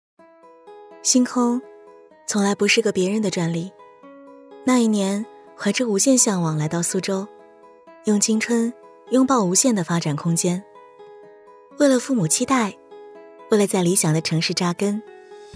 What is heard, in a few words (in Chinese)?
中文旁白走心独白